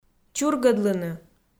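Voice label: "чургӧдлыны", Komi, female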